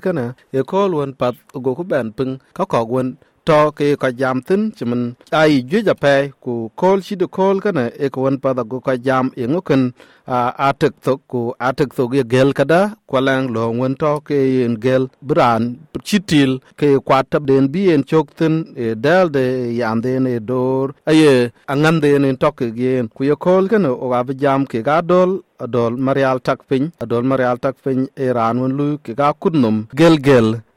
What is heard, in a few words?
dinka; Gëlgël atëkthok rot looi NSW ku ye tɛ nɛn leu ku bï yin latuëŋ kek yen; SBS Dinka